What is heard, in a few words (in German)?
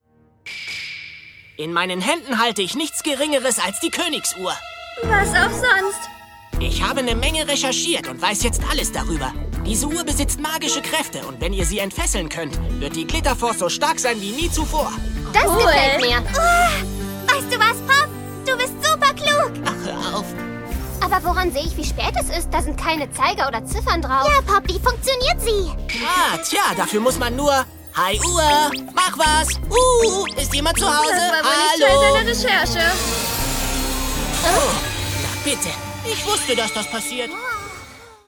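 Schauspiel, Freundlich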